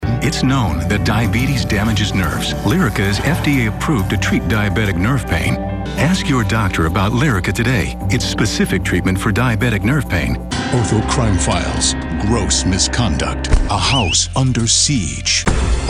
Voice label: commercial